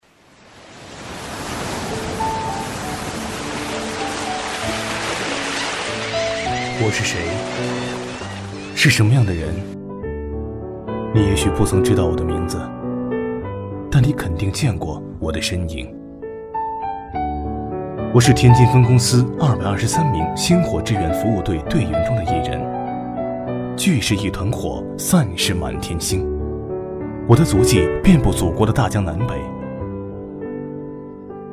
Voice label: 男, 旁白; 我是谁旁白

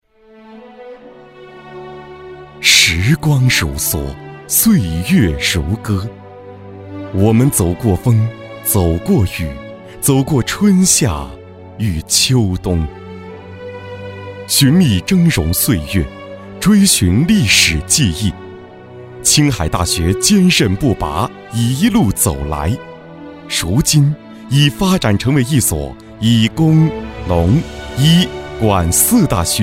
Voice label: 男 专题 温暖深情; 青海大学

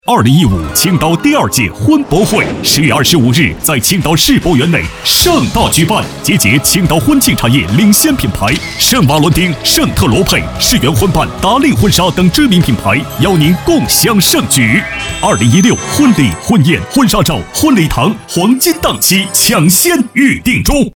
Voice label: 男 广告 婚庆婚纱

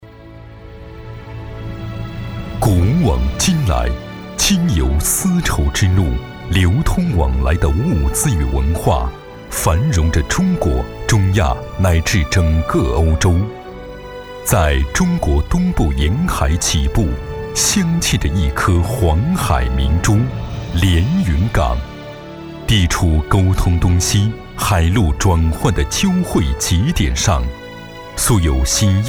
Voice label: 男, 专题

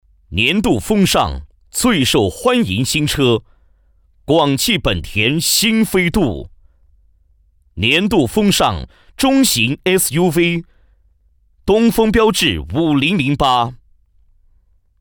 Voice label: 男 颁奖

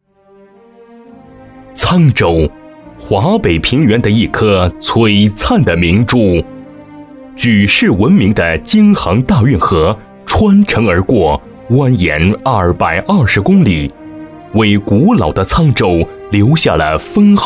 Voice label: 男 专题; 沧州一中

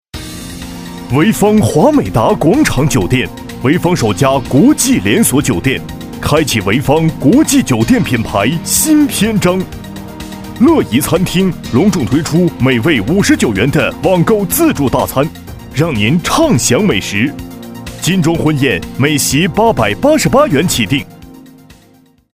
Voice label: 男, 广告

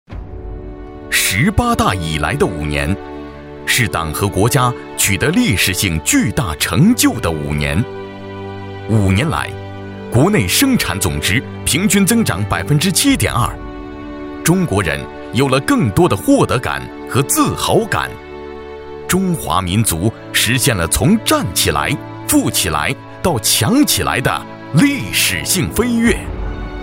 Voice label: 男 专题 党政; 春雨五年党政

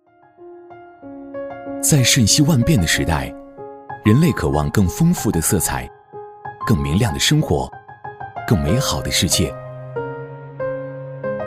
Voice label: 男 专题 科技生活; 科勒电器

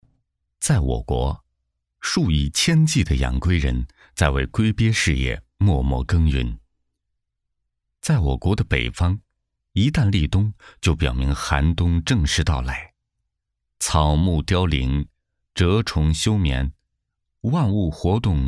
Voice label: 男 纪录片; 龟鳖事业

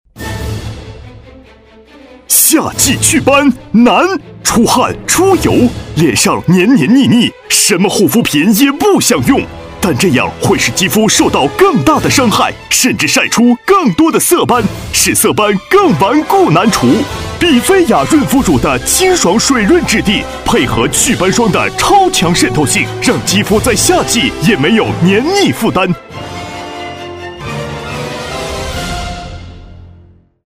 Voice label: 男 广告 电视直销 电购; 祛斑霜

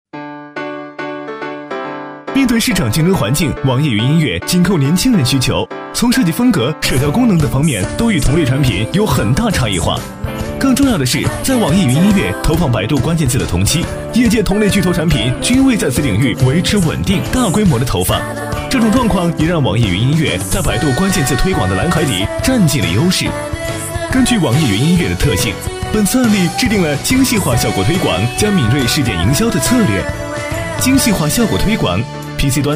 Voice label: 男

